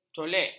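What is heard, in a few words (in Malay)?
"colek", Malay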